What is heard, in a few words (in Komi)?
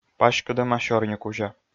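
"паськӧдӧма сёрникузя", Komi, male; audio dict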